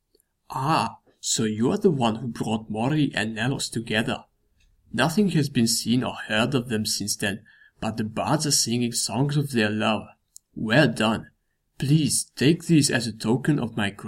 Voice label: breton audition, breton voice auditions